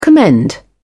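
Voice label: "commend", English, British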